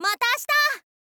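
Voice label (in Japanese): "mata ashita", girl, Japanese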